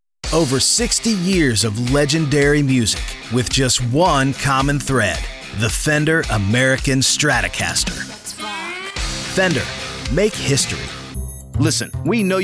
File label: Commercial